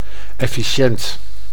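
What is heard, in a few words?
"efficient", Dutch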